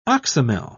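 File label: "oxymel", British English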